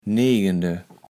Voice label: "negende", kaevels platt

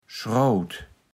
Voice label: "schrawt", Platt

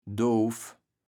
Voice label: "doof", kaevels platt

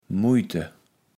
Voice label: "Muijte", Platt

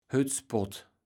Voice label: "Höttspott", kaevels platt

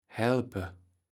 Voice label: "helpe", kaevels platt

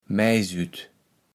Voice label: "Maisüt", kaevels platt

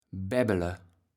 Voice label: "bebbele", kaevels platt

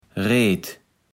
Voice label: "Reet", Platt

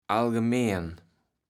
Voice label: "algemeen", kaevels platt

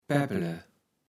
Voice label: "Paeppele", Platt

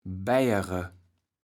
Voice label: "beijere", kaevels platt

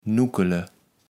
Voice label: "nukkele", kaevels platt

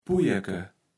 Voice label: "Pujerke", Platt

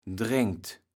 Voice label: "drengt", kaevels platt

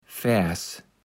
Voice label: "Faers", kaevels platt

